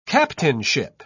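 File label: "captainship", English, British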